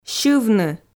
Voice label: "сьывны", Komi

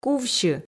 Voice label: "кувсьы", Komi

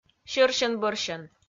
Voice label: "сьӧрсьӧн-бӧрсьӧн", Komi

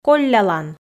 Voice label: "коллялан", Komi

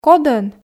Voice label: "кодӧн", Komi

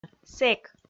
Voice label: "сэк", Komi

